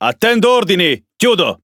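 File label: Italian speech Pilots Fighter at position; World Of Warships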